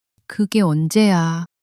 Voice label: sadness

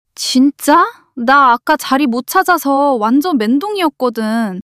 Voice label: fluster

